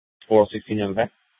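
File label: Police Department North Dispatch, scanner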